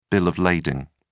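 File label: "bill of lading", English, uk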